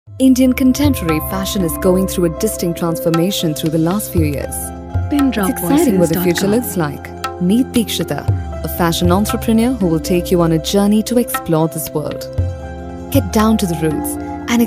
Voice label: English